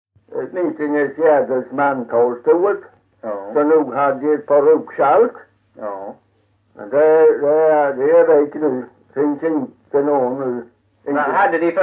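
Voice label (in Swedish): Kyrkhult Blekinge, dialekter; Folkminnen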